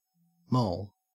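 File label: "moll", Australian English; pronunciations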